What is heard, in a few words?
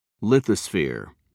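"lithosphere", British English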